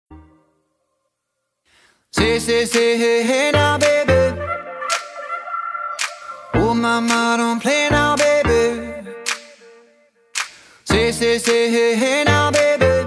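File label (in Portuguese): birthday NEUTRO SINGULAR female, telemensagem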